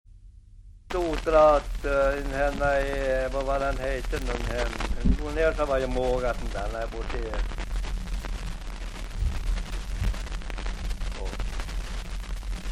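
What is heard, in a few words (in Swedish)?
Aspås Jämtland, dialekter; Folkminnen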